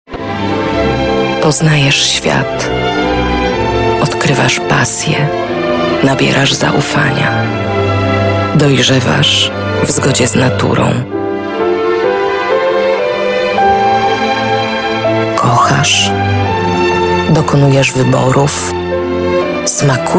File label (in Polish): Reklama TV; Monini